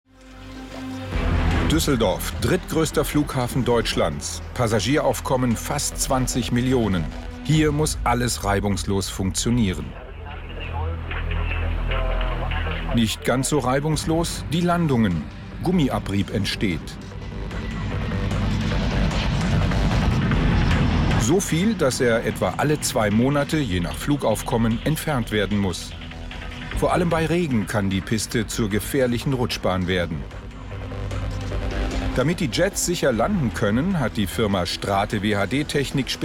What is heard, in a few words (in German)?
Industriefilm